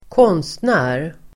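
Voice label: "konstnär", Swedish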